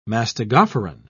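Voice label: "mastigophoran", British English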